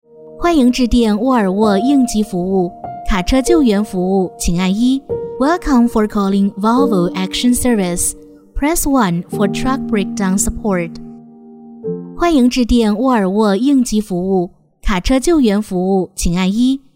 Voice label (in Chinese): 英女 中英文彩铃; 沃尔沃